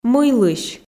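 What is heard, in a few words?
"мыйлысь", Komi, female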